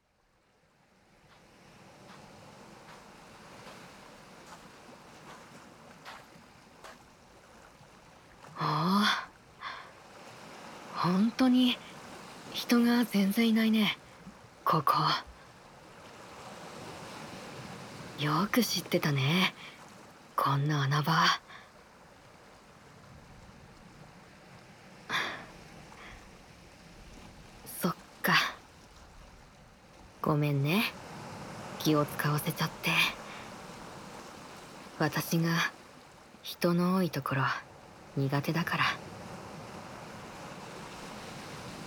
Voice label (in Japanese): 低音ボイスなイケメン彼女と海辺の耳かき, ASMR